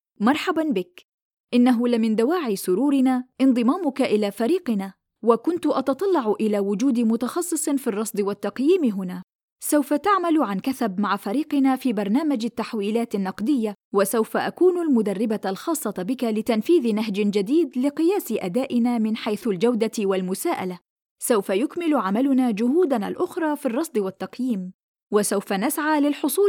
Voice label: arabic training e learning, arabic voice over talent